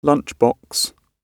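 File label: "lunch box", British English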